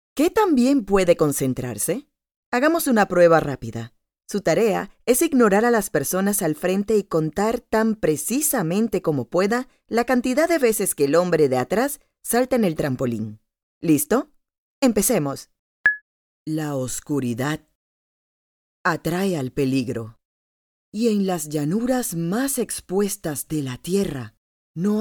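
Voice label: Latin American Spanish, documentary, neutral Spanish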